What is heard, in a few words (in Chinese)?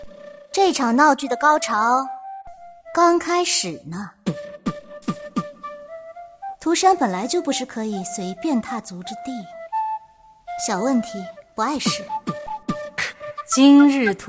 动漫 角色